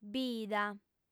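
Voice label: "bida", female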